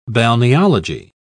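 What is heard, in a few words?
"balneology", British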